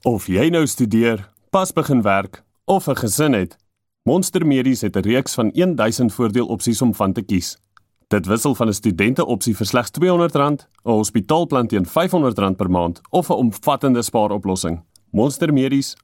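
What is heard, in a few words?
AF Commercial, Afrikaans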